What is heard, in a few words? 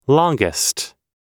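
"longest", US English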